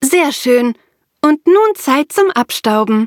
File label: victory, de